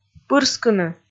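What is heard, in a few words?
"пырскыны", Komi, female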